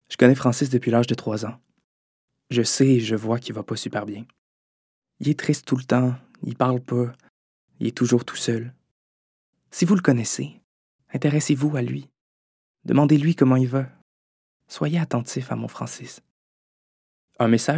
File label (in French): demo voix, hommes